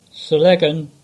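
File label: "Sellegan", English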